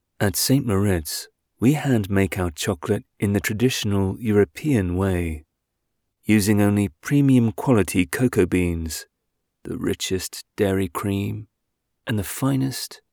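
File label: UK, voiceover; St Moritz Demo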